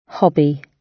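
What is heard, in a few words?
"hobby", British English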